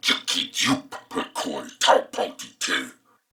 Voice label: Patrol Male, en; Corpus Venus; Warframe; CorpusVenusMaleB